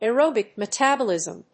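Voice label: "aerobic metabolism", English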